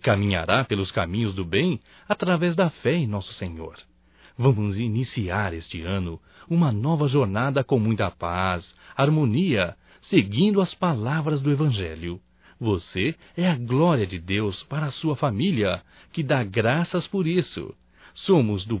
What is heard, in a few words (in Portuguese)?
ANO NOVO NEUTRO (M); Natal e ano novo; Telemensagens 2017